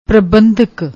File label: "prabhandhak", Punjabi